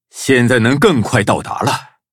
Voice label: levelup zh-hant; Cookie Run